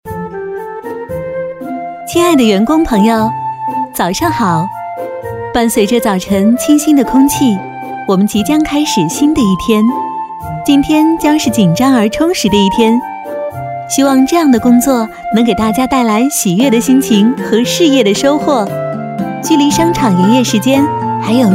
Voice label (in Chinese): 多媒体 广播提示 甜美, 女国; 武汉首创奥特莱斯